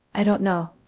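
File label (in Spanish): Voice Acting, es, female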